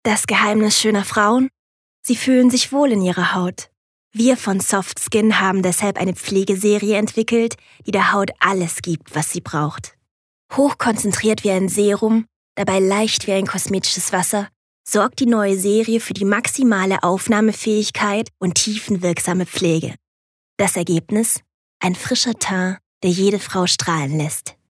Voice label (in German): Sanft; SoftSkin